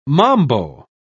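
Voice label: "mambo", English, uk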